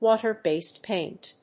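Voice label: "WATER-BASED PAINT", English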